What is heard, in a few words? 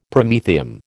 "Promethium", American